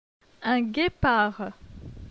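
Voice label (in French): "Un guepard", French; phonetique; la graphie gn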